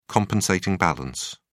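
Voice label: "compensating balance", British English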